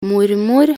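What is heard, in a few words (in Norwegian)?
"mormor", Norwegian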